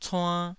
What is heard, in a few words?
"cuann2", Taiwanese syllable